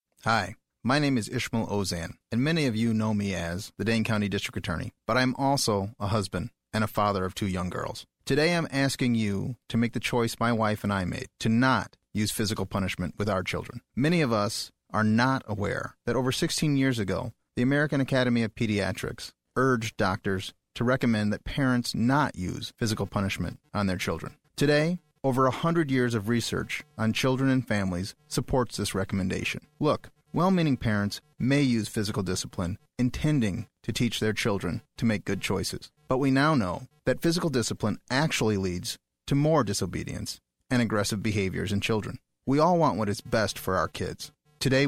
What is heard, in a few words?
PSA; US Alliance